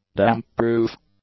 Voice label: "damp-proof", English; adjectives

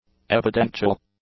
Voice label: "evidential", English; adjectives

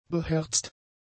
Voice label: "beherzt", German; adjectives

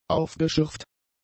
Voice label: "aufgeschürft", German; adjectives

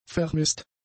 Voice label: "vermisst", German; adjectives

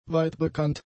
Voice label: "weitbekannt", German; adjectives

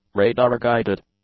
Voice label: "radar-guided", English; adjectives

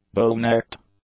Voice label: "bull-necked", English; adjectives

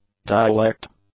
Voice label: "dialect", english; adjectives

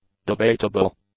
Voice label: "debatable", english; adjectives

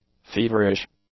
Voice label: "feverish", english; adjectives

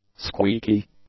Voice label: "squeaky", English; adjectives

